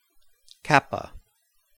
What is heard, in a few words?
greek kappa